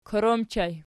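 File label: "kor" ergative plural, Archi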